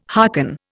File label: "hearken", Indian